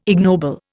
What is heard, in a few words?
"ignoble", Indian